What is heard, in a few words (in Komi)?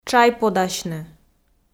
"тшайподасьны", Komi